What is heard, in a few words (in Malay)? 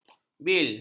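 "bil", Malay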